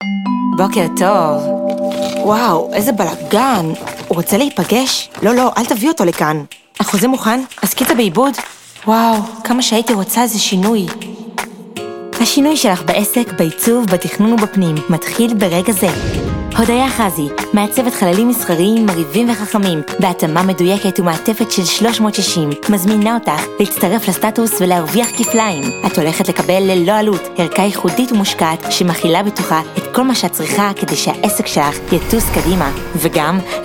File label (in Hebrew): קריינות